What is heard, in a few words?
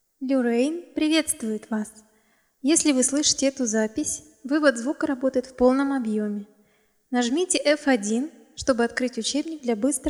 reverb, greeting